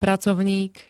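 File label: "pracovník", Slovak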